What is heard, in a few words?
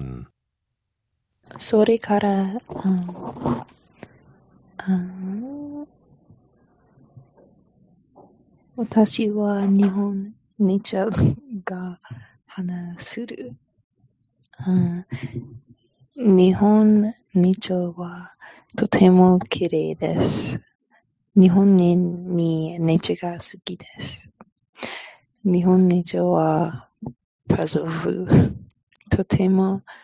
japanese cultural presentation; AP Japanese Language and Culture